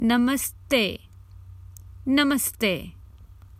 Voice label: Good afternoon, Common Hindi Phrases for travel